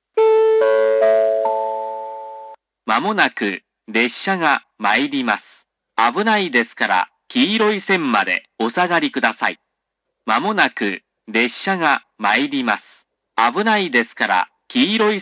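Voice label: platform 3 approaching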